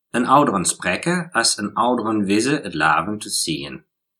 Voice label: north frisian